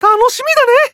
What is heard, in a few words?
Emo Expect